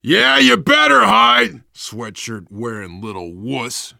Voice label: heard hunter, voice, biker; Left 4 Dead 2; survivor